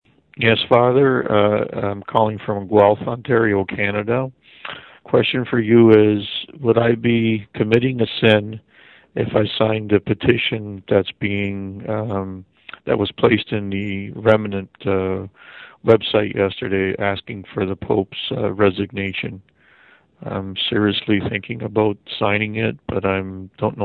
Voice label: voicemail